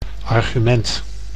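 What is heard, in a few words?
"argument", Dutch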